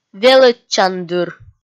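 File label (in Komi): "велӧдчандыр", Komi, female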